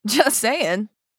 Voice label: Just saying meme voice female

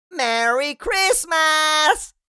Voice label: Jolly elf merry christmas

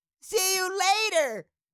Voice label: Cartoon little child voice see you later

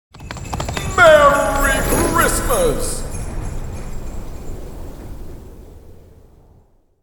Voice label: Merry christmas santa claus voices

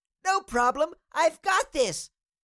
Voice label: Cartoon little child voice; i have got this

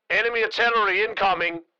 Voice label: Military radio voice; enemy artillery incoming

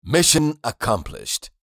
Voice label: Mission accomplished game win male voice; success

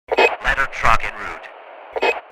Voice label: Firefighter radio chatter ladder track en route

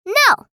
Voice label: "No" vocalization girl child kid

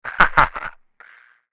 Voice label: Walkie talkie conversation vocalization laugh

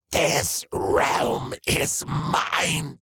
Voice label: Demon beast imp monster; this realm is mine

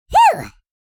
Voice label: Minion excitement voice; fantasy friends

